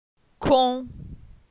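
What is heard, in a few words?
"Con", French; phonetique; la syllabe on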